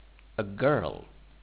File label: girl